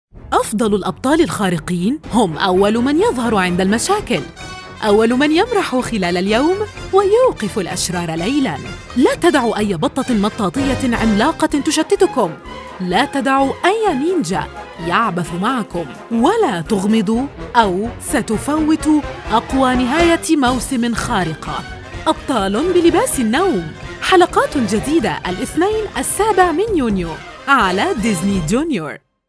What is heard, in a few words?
TV Promo; PJMASKS; KIDS